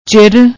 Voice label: "chir", Punjabi; ਚਿਰ